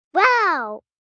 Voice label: Wow, Reaction, 1 girl, 5 yrs.; Exclamation Sound Effects; Voice

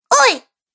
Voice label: Cartoon Girl Oi; Cartoon Voice Sound Effects

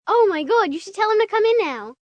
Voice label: Tell Him To Come In, 1 girl, 10 yrs; Talking Sound Effects

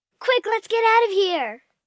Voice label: Distress Quick, Distress, 1 girl, 10 yrs; Exclamation Sound Effects

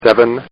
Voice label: Man Says, Talking; Voice

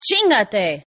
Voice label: Female Fu Spanish, Hispanic Female Saying; Attitude Sound Effects

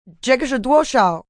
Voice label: How Much, female, Cantonese; Question, foreign; Talking Sound Effects

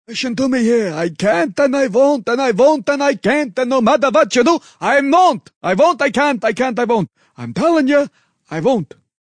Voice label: Yiddish Cant Wont, Male; Talking Sound Effects; VoiceBox; Twistedtracks

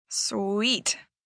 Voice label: "Sweet", Reaction, 1 girl, 12 yrs.; Talking

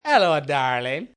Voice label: "Hello Darlin", Greetings, male

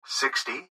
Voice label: Gps Voice, Male; Clip Speaker Adult Male; Recorded Voice; Blastwave; Technology

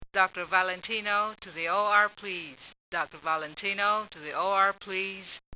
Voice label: Doctor's Page, Hospital; Hospitals (Sound Effects:Backgrounds); This sound effect was recorded in a custom built sound booth

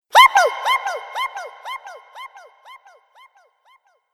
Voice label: Cartoon Game Voice Help Me Chasm Echoes

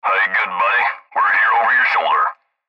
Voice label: Cb Trucker Radio; Radio Voice; Blastwave

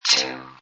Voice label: Robot Voice "0"

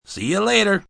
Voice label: "See Ya Later", male; Greetings And Goodbye; Goodbyes

